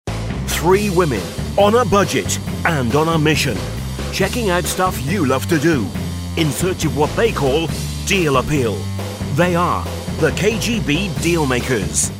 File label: british announcer authoritative street interviewers